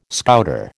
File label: "Scouter", American